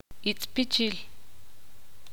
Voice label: ixil; nacer